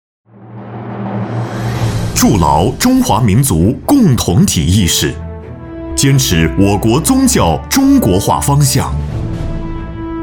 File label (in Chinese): 男 专题宣传 大气力度; 民族宗教工作汇报